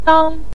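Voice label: "dang" neutral tone, Mandarin Chinese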